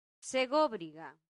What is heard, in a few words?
"segobriga", latin; santillana